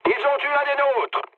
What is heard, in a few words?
aircraft destroyed mood low, speech fr; War Thunder